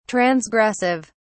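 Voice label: "transgressive", British English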